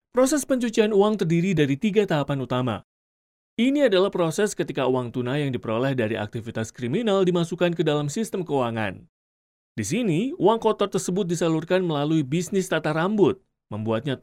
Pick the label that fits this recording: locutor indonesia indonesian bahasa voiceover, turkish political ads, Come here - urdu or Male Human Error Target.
locutor indonesia indonesian bahasa voiceover